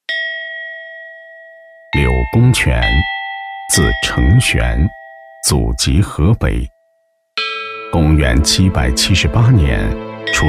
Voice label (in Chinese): 男 纪录片; 柳公权